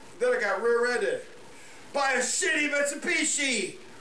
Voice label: Chat

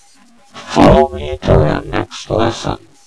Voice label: holo follow, tutorial; blackops

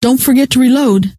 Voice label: reload, scientist female

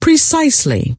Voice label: precisely, scientist female

